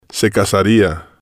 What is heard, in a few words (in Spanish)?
se casaría, Spanish